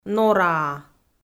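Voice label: "нораа", Komi, female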